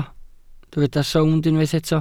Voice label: "sownd", Welsh